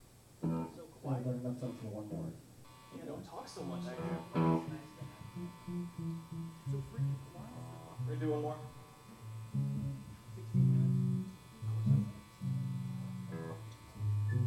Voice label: banter